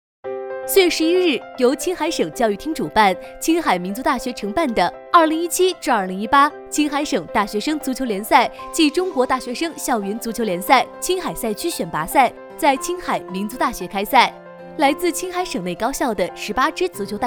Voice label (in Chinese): 女 新闻 新闻感; 青海足球; 方言